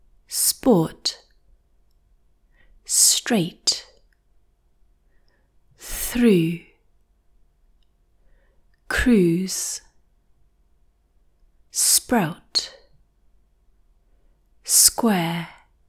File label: Mandarin, British accent; English Pronunciation Errors made by Mandarin Speakers